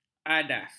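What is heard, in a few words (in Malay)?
"adas", Malay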